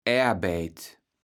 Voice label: "Aerbeit", platt

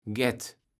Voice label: "gätt", Kaevels Platt

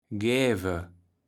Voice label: "gäve", Kaevels Platt

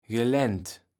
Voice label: "gelänt", Kaevels Platt

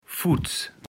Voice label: "futts", Kaevels Platt

